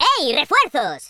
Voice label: drop, Spanish

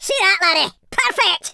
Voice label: "perfect", Scotsman; speech

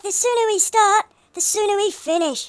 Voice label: orders, speech, Hippy

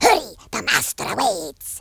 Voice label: "come on then", Worms Horror speech

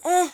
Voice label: jump, Hippy; speech

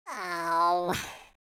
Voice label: sad, Footy Fan speech

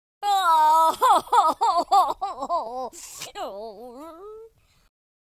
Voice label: sad, Drill Sergeant; speech

